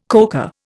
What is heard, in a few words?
"coca", Indian